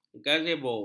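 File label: "gazebo", Malay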